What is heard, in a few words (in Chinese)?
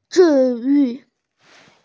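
"zhi4yu4", Mandarin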